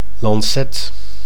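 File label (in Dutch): "lancet", Dutch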